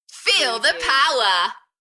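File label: British female Feel the power Enthusiastic; beanstalk audio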